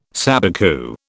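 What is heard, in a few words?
"sabicu", British English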